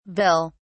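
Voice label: "vill", English, British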